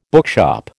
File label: "bookshop", American